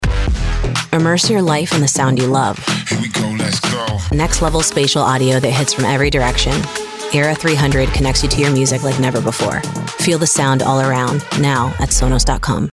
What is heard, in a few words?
Commercial English Flat Conversational; SONOS